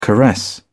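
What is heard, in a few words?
"caress", British English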